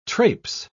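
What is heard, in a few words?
"trapse", British English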